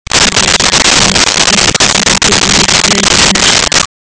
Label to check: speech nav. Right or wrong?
Right